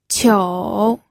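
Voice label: "qiu", Mandarin Chinese, third tone; pinyin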